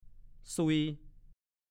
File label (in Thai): ภาษาโคราช; ซุย; 1. มีดชายธง มีดพก